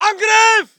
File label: voice ger infantry; Red Orchestra 41-45